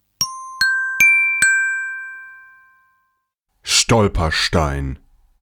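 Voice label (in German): "Stolperstein", German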